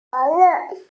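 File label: "responsibility", Mandarin, hearing-impaired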